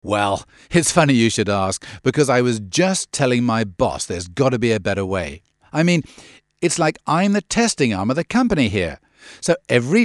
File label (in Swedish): brittisk man, speakerprover